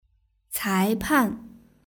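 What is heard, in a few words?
"caipan" Mandarin Chinese; world cup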